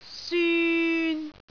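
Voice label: "syun3", Cantonese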